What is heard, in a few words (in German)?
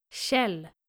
"kjell", nordische jungennamen